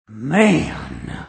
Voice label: Man; Clickamajigs; Busted Rhymes